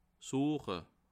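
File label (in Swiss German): "sueche", Bas Rhin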